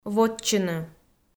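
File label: "вотчыны", Komi, female; audio dict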